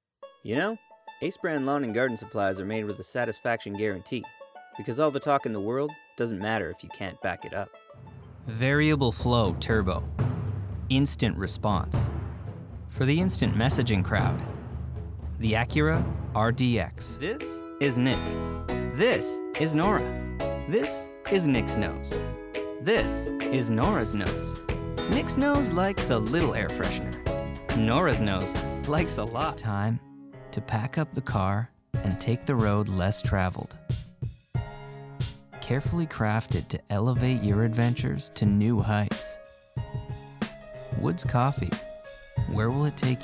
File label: Commercial